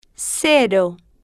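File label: "cero", slow, spanish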